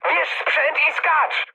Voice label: bailout mood med, speech pol; War Thunder

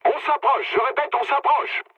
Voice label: near waypoint mood low, speech fr; War Thunder

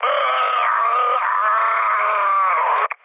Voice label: damaged pilot, mood low, speech hun; War Thunder

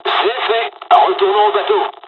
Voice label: dialog, dialogs french; War Thunder

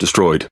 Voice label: Speech Pilots Fighter Destroy, EN GB; World Of Warships

